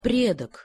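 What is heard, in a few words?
"predok", Russian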